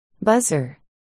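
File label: "buzzer", spoken word, articulation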